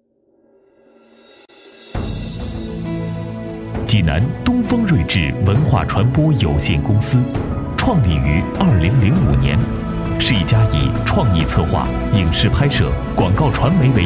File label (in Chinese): 企业宣传片; 东方睿智